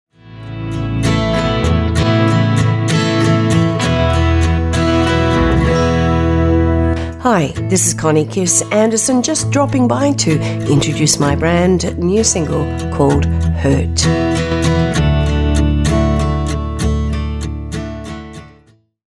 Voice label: radio intro with backing